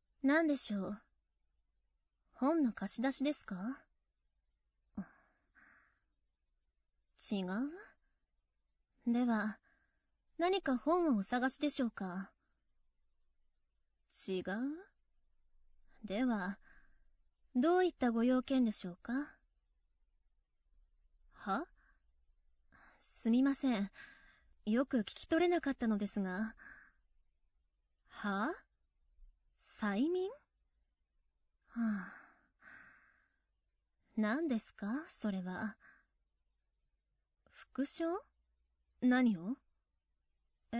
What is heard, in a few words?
voice, ASMR